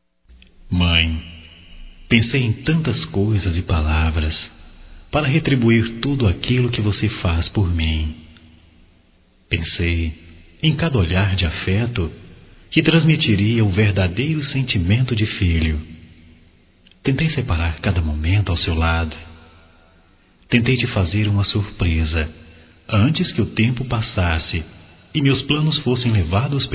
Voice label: Homenagem a Mãe Masculino

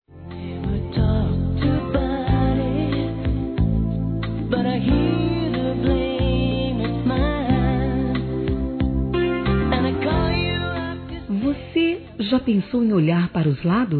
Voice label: Paquera Feminino; Telemensagens 2017

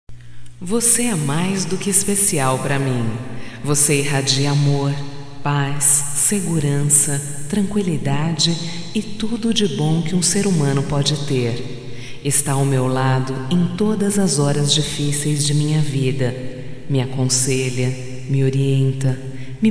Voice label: Agradec a mae, fem, Só Voz; Telemensagens; AGRADECIMENTOS

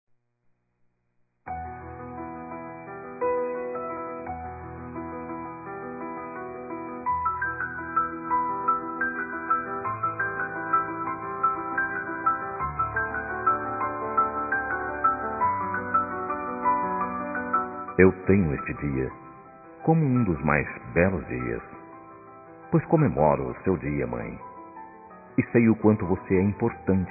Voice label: Dia das Mães Evangélica Masculino; Telemensagens 2017

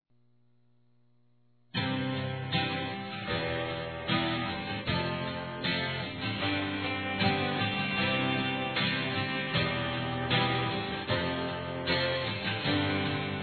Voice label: SAUDADES ROMANTIC F; Telemensagens

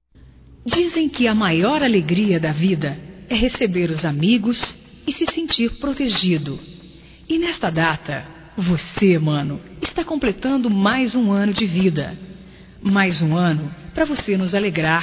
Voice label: IRMAO, Telemensagens, Aniversarios, fem; Telemensagens 2017

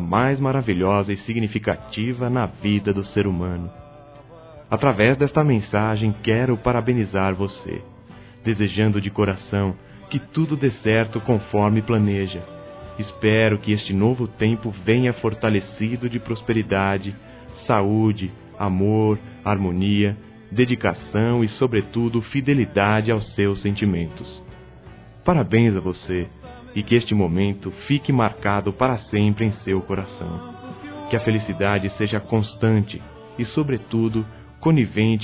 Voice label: CUMPRI. AMIGO PELO CASAMENTO, Amizade Masc; Telemensagens

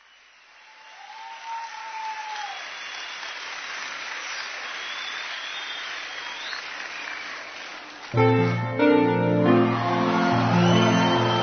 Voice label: Amor não correspondido Masculino

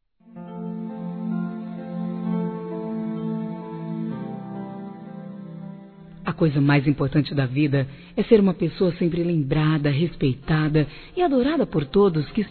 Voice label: BIS AVO(A) F

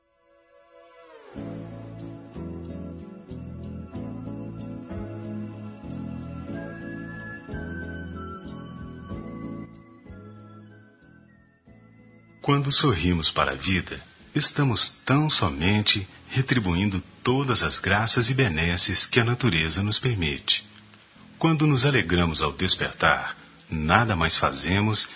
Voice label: Aniversário Otimismo M; Telemensagens 2017; Aniversários; Aniversários diversos

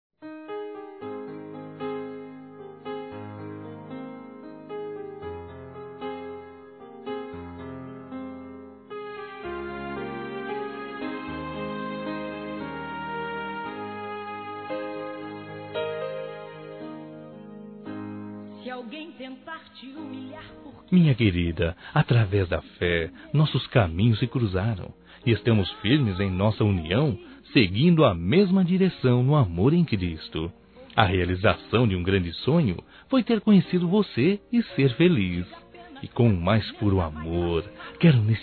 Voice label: Mensagem Romântica Masculino

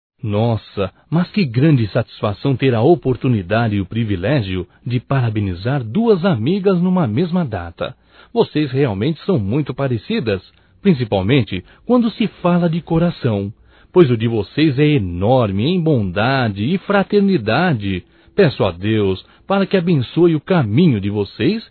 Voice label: Aniversário Gêmeas Masculino; Aniversarios; Telemensagens 2017

